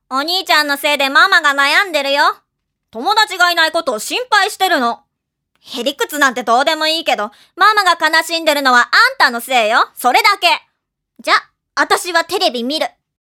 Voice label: female voice